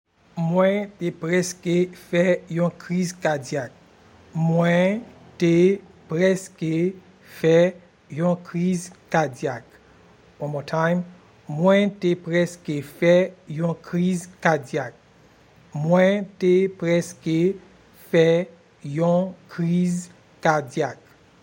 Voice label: I almost had a heart attack in Haitian Creole Mwen te preske fe yon kriz kadyak